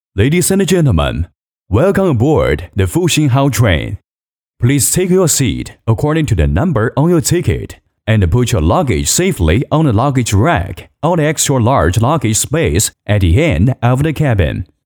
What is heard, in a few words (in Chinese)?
男英 多媒体 广播提示 中英播报; 外语; 英语; 中英播报复兴号